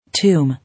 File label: "Tomb", English